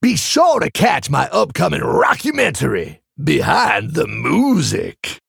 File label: Male Tauren Idle